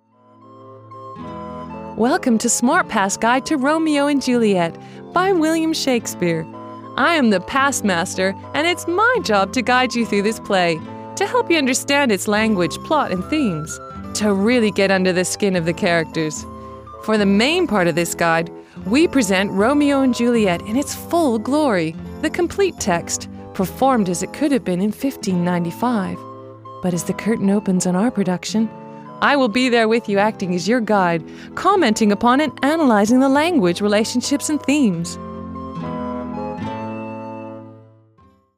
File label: educational friendly, voiceover